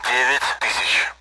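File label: Speech Sound Russian Common AWACS Numbers; DCS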